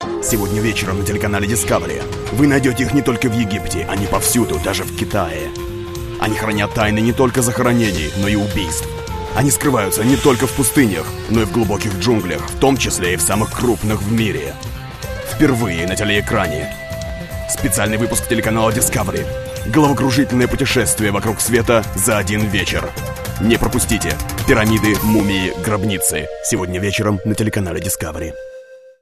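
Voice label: Russian, eastern european; Male; 30s-40s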